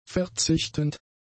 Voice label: "verzichtend", German; adjectives

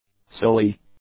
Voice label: "silly", English; adjectives

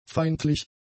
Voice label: "feindlich", german; adjectives

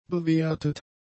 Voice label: "bewertet", German; adjectives

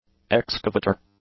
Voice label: "excavator", English; nouns

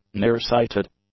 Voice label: "near-sighted", english; adjectives

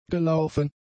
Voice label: "gelaufen", German; adjectives

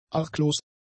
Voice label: "arglos", German; adjectives

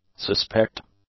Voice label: "suspect", English; adjectives

